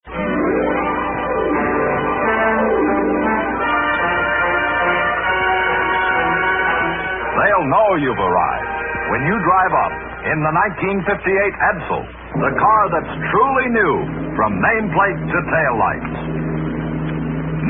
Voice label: Vintage Commercials; Edsel 1958